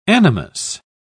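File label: "animus", British English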